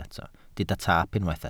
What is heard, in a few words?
"taap", Welsh